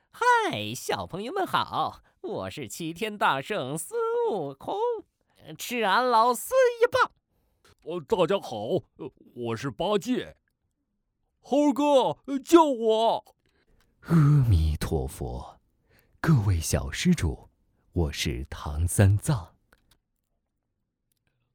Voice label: 男, 角色; 西游记

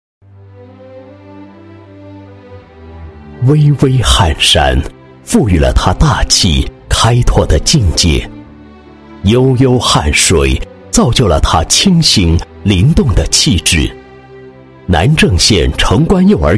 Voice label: 男 专题 幼儿园 大气舒缓, 国语男声配音

